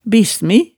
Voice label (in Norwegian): "bissmi", hemsedals maalet tale